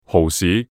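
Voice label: Cantonese "蠔豉"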